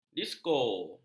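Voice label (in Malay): "disko", Malay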